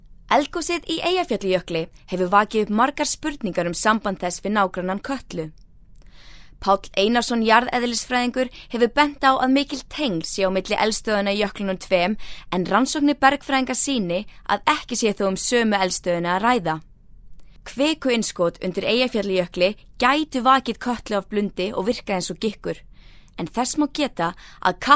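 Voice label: Icelandic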